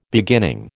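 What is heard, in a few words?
"beginning", American English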